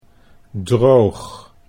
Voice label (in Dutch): "droog", Dutch